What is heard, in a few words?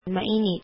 "warm", Filipino